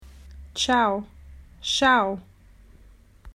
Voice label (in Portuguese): "Xau", Portuguese